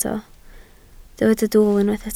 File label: "dwl", Welsh